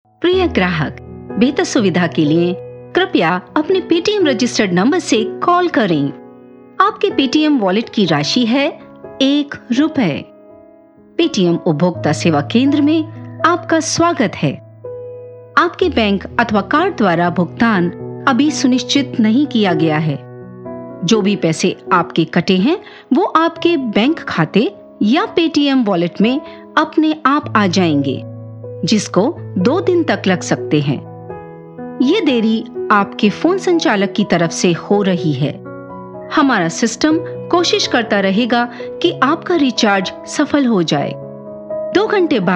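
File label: IVR Hindi; Paytm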